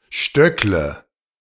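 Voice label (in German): "stöckle", German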